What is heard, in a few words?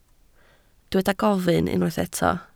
"gofyn", Welsh